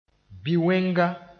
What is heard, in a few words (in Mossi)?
"bi-wẽnga", moore